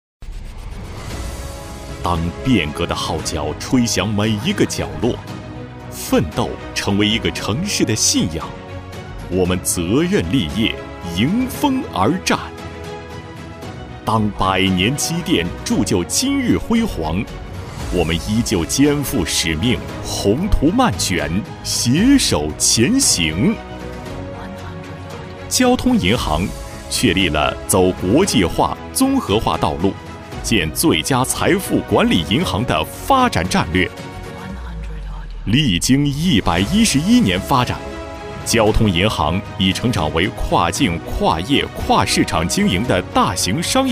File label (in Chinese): 宣传片大气沉稳; 交通银行贵州省分行